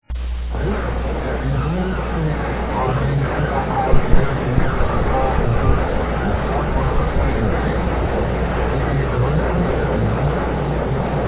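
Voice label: project score recorded voice, satellite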